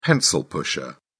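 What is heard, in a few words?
"pencil pusher", English, British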